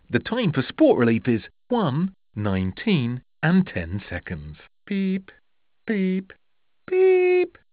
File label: speaking clock